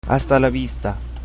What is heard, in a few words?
goodbye, chabacano